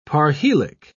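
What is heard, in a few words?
"parhelic", British English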